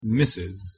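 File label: pronunciation "mrs", English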